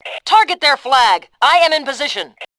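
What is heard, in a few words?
"target flag", Fem2; Tribes2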